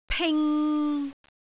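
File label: "ping3", Cantonese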